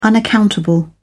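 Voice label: "unaccountable", British English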